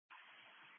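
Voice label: Police Patrol Dispatch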